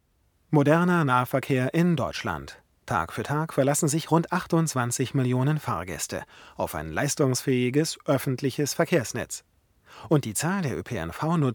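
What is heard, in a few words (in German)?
mk41 bearbeitet, Sprecherraum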